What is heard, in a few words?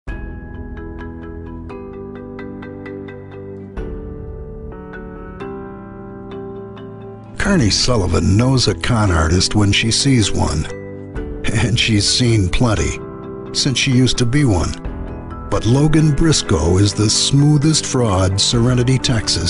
MOVIE TRAILER; SHADOW IN SERENITY